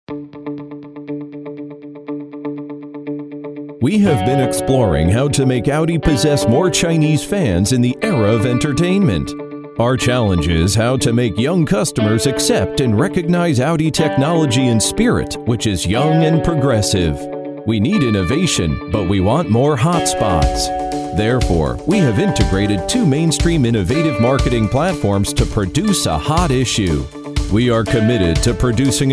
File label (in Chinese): 外籍男英 外语 美式英语 沉稳; 奥迪